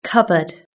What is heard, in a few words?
"cupboard", british english